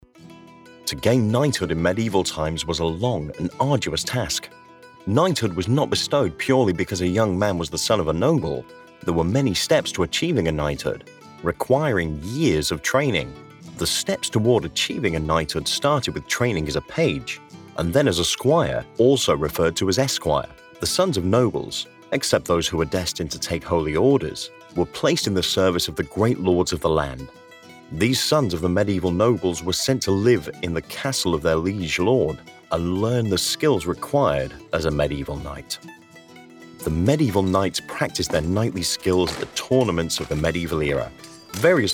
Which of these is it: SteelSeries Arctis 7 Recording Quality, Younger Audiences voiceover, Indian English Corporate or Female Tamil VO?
Younger Audiences voiceover